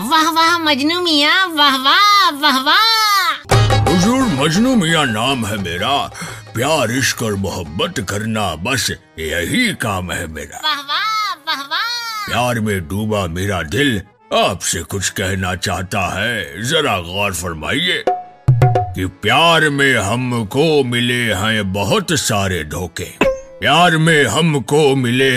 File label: Funny Capsule Shayari Style; Majnu Miyan